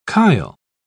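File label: "chyle", British English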